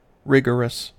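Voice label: "rigorous", US English; pronunciations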